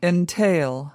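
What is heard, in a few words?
"entail", American English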